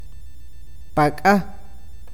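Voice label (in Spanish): uña, awakateko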